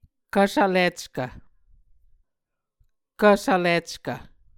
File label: "kv svlickv", Muscogee